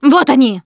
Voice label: incoming, russian, Survivor Voice Producer